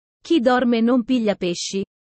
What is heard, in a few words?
italian sayings about life